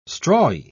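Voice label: "strawy", English, British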